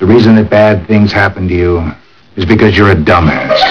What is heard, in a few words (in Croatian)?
glas, ADPCM 11 Khz, 4bit, mono; Sada je sampling rate još smanjen na svega 11 Khz